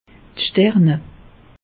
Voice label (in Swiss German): "sterne", alsace; meteo